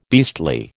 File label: "beastly", American